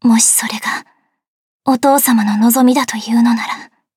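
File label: start, Japanese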